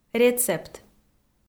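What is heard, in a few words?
"рецепт", Komi, female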